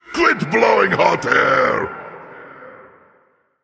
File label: Male Elemental Mirror Greetings